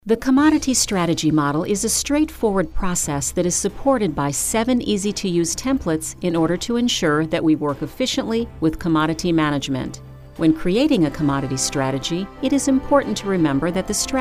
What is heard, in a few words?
Narration